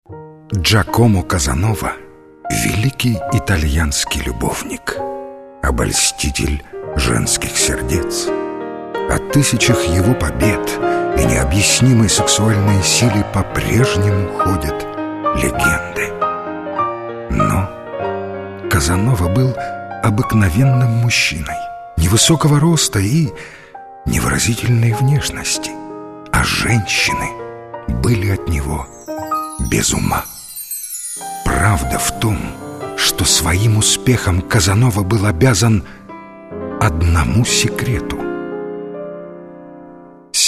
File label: book, dictory men